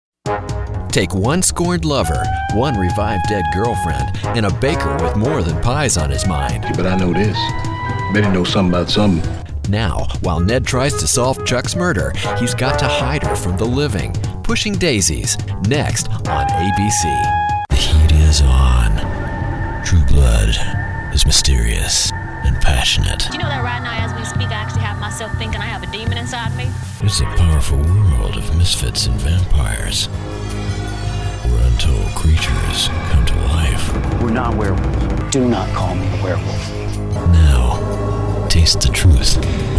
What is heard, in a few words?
TV Promo